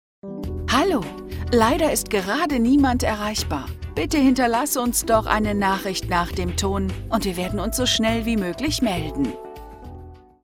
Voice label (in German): Ansage mit Musik